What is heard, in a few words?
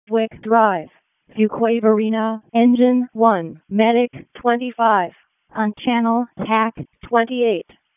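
Wake County Fire Department ALERT, p25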